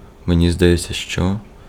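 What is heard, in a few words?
Ukrainian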